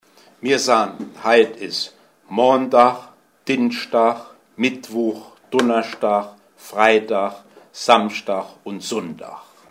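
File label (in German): Wochentage, O-Ton Hunsrück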